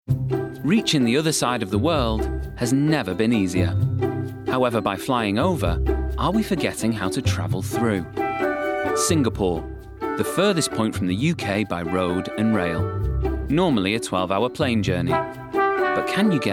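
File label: documentary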